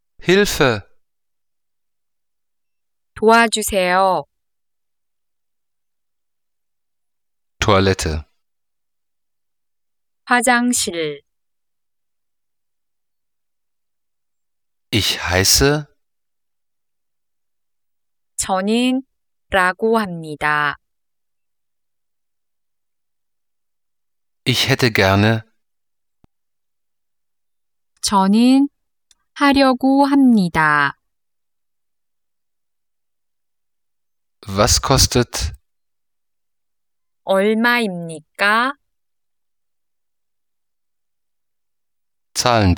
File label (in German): koreanisch